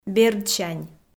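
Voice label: "бердсянь", Komi-Zyrian, female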